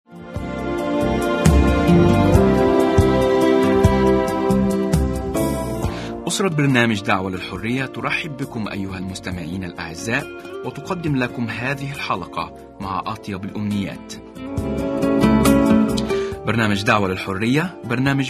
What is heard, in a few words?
Arabic